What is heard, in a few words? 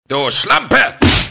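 "schlampe", German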